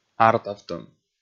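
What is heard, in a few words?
"артавтӧм", Komi, male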